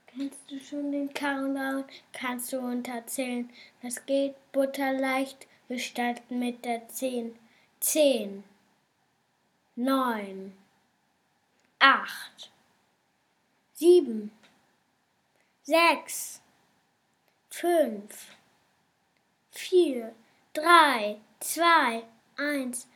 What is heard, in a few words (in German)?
countdown laut